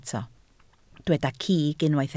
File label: "cig", Welsh